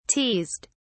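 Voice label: "teased", British English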